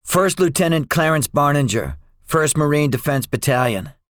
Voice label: debriefing, english; War Thunder

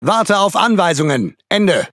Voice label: Speech Pilots Torpedo, DE

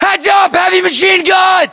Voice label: US threat mg, battlechatter, english; localized english

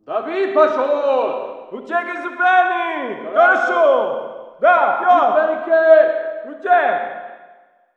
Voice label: walla rus mountain battle

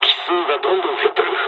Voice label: dialogs japanese; War Thunder

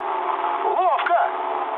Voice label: trick, speech ru

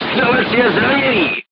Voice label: voice message gunlayer wounded, crew tanks czech

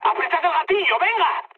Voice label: attack position, mood high, speech; War Thunder

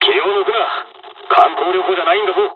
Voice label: dialog, dialogs japanese; War Thunder

